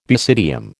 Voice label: "basidium", American